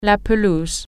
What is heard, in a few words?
grass, french